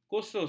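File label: "kursus", Malay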